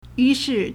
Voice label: "yu2 shi4", Chinese

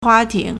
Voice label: "hua2 ting3", Chinese

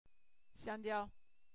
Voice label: "xiangjiao", Chinese

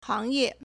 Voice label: "hang2ye4", Chinese

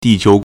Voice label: "di4 qiu2", Chinese

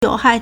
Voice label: "you3hai4", Chinese

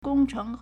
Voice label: "gong1 cheng2", Chinese

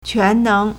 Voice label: "quan2 neng2", Chinese

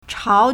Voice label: "chao2", Chinese

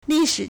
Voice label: "li4shi3", Chinese

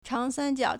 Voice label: "chang2san1jiao3", Chinese

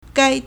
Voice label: "gai1", Chinese

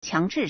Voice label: "qiang2 zhi4", Chinese

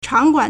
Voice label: "chang3guan3", Chinese

